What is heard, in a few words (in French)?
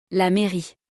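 "la mairie", French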